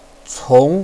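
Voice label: "cong", Mandarin Chinese, second tone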